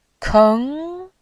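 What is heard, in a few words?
"keng2", Mandarin Chinese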